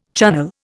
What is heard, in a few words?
"Chunnel", Indian